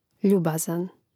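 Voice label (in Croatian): "ljubazan", Croatian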